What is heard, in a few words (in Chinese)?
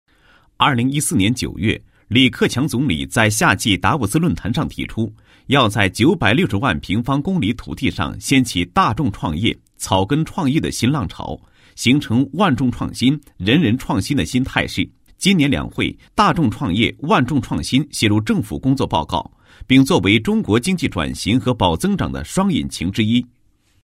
男 专题; 打造学府创新创业走廊